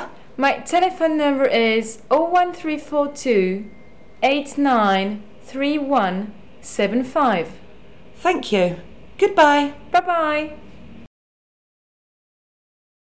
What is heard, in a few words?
Dialog Address phone